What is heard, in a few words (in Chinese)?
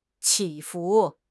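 "起伏", Chinese; HSK6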